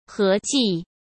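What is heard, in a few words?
"合计", Mandarin Chinese; chinese-flashcards; hsk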